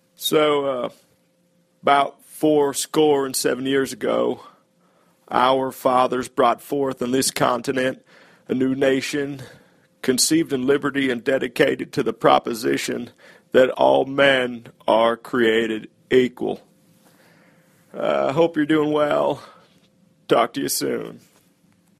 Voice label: Character; Bill Clinton